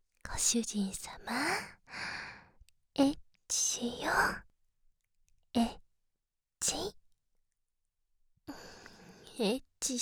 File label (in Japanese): エッチしよ はにかみ, 左, バイノーラル; DL製作班; ハーレムオナサポ 4人のメイドがご主人様のオナニーをお手伝いするバイノーラル